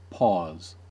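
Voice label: "paws", American English; pronunciations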